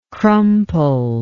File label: "crumpled", english